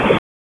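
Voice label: Raleigh Police Northeast District Tactical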